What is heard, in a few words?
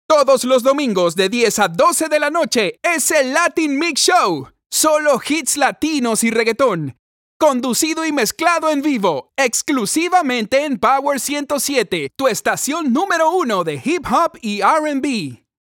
spanish latin american promos